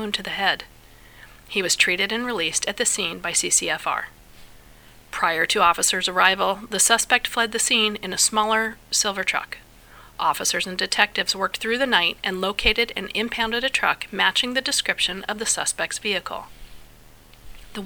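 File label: Altercation with handgun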